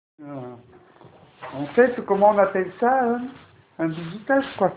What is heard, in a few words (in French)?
Message vocal